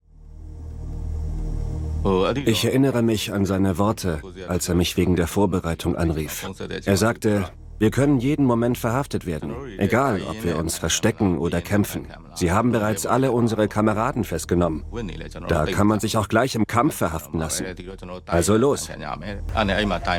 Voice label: voice over; arte; Sprecher in Stuttgart; Muttersprache Deutsch